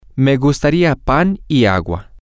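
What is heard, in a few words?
Spanish, female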